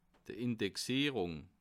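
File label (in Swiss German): "indexierung", Alsatian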